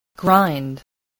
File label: "grind", spelling